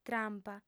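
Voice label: "trampa", Sardinian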